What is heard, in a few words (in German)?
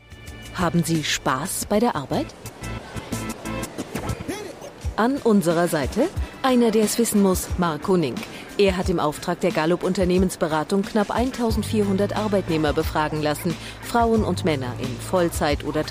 reportage umfrage; nano